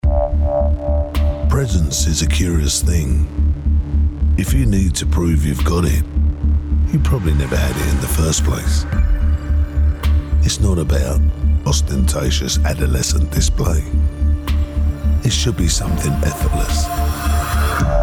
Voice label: Whisper

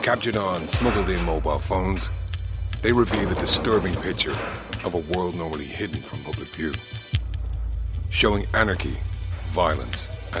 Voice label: Prison Narrative Slower

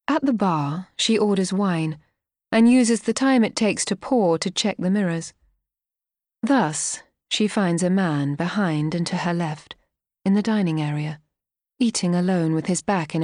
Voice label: Bookread; Treachery Of Spies

